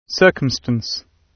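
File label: "circumstance", British English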